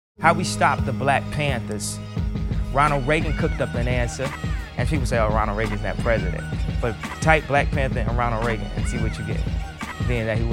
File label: Poetry Style